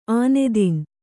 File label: "ಆನೆದಿನ್", Kannada; ānedin; to eat elephant-meat.